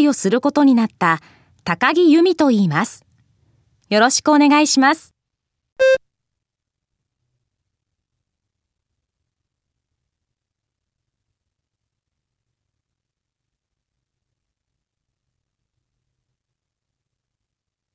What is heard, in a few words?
japanese language conversation